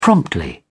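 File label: "promptly", British English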